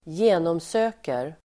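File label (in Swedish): "genomsöker", Swedish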